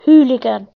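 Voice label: "hooligan", British English; pronunciations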